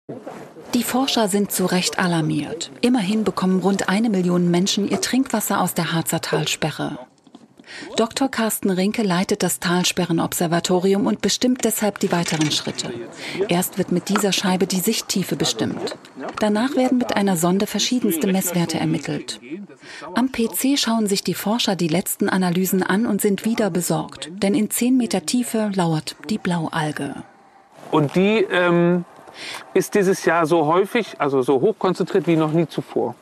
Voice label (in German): Doku, Sprecherin; ZDF I Doku "Talsperre"; TV ZDF